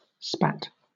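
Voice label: "spat", British English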